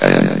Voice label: "and", vox; Source Engine; bshift

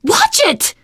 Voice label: friendly fire, teen girl voice; survivor voice

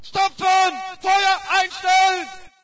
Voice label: ger cease fire, player; Source Engine; dod

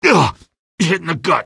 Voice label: hit in gut, male; Source Engine; video game; npc

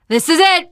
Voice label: world hospital generic, teen girl; voice; source engine; left 4 dead 2; survivor

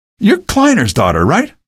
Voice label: greet, male; video games; npc; source engine; episodic; episode 1

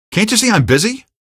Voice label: busy, male; Source Engine; video game; npc

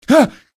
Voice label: shock, male; video games; npc; source engine; episodic; episode 1

